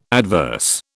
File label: "adverse", British